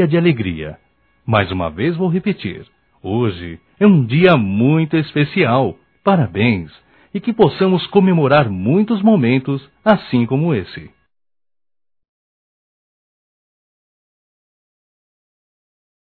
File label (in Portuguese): Data Comemorativa Geral Masculino, Voz; Telemensagens; Só Voz; Telemensagens 2017